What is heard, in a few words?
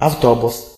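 iso bus, Russian; vehicles; stamps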